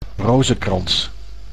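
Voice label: "rozenkrans", Dutch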